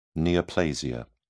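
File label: "neoplasia", British English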